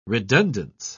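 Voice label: "redundance", English, British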